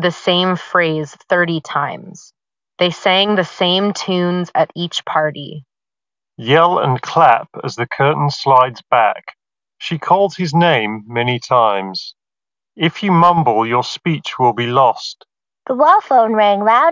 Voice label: KEF Mu3 Ideal microphone sample

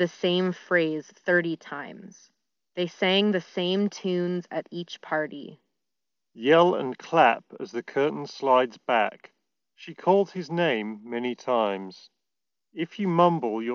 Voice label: Bose QuietComfort 45 Ideal microphone sample

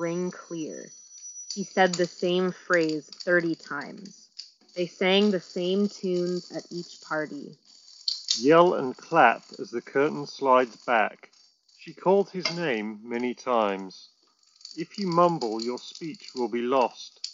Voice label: Office microphone sample; Bose Quietcomfort Headphones

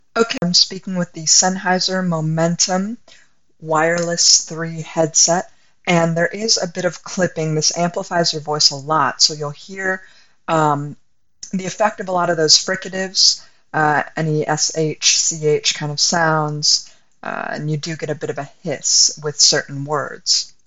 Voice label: Sennheiser Momentum Wireless 3 microphone

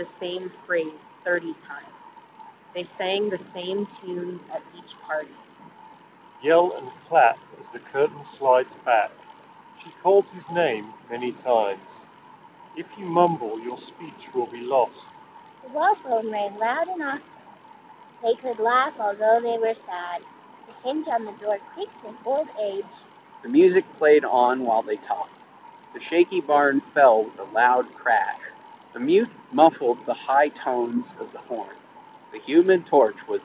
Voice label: Wind microphone sample; Focal Bathys

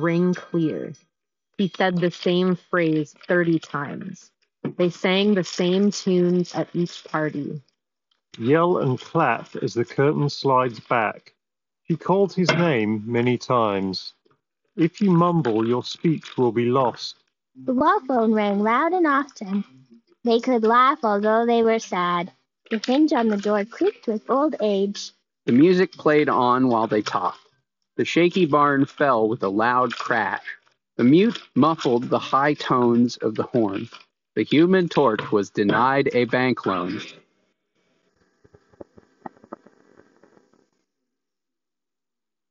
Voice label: Sony Linkbuds S Office microphone sample

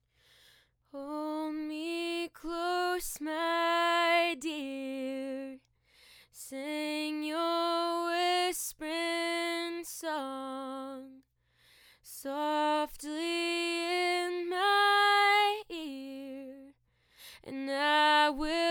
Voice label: Scarlett CM25 MkIII with AIR; focusrite scarlett 2i2 studio

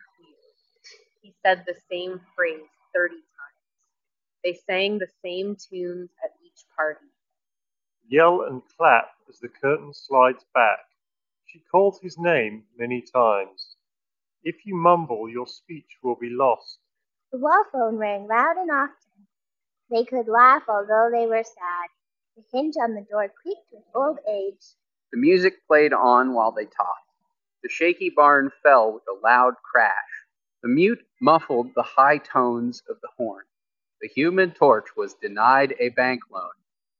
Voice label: JBL Endurance Peak3 Street microphone sample

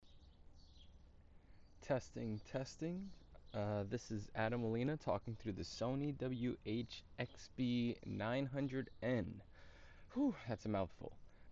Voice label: Sony WH XB900N microphone sample

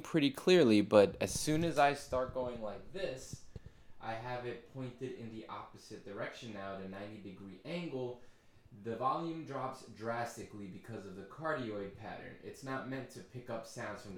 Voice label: Shotgun Microphone sample

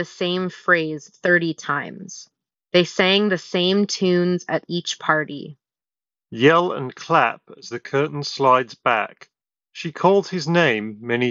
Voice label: Ideal microphone sample; 1More Sonoflow

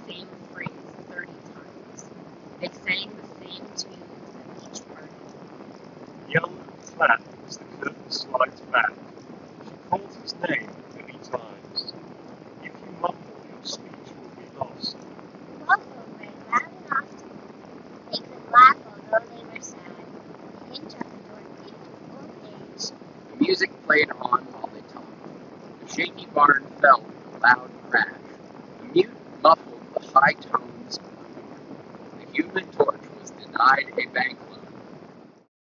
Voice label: True Wireless Wind microphone sample; Sennheiser Momentum True Wireless 4

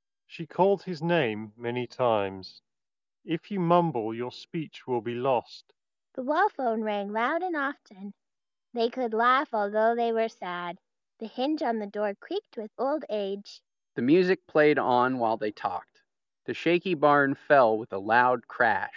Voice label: JBL Tune 660NC Wireless Ideal microphone sample

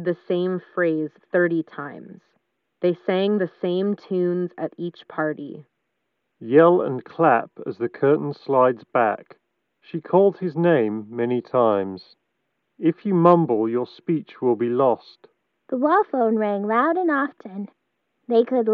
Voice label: Ideal microphone sample; Bowers Wilkins PX7

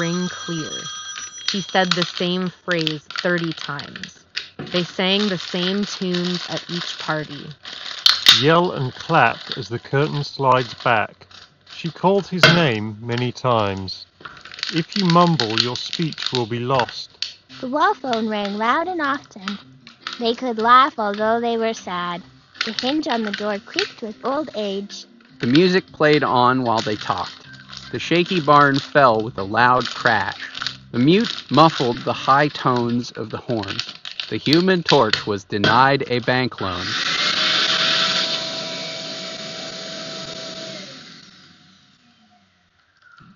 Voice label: Marshall Minor III Office microphone sample

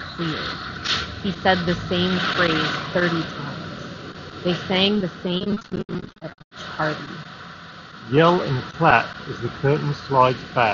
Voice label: Marshall Minor III Street microphone sample

